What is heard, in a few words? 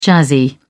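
"jazzy", British English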